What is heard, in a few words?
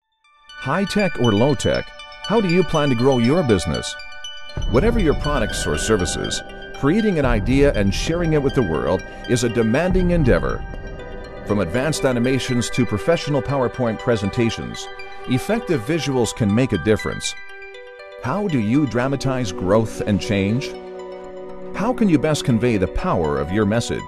english american corporate videos; Profundo e autoritário com clareza elegante e calor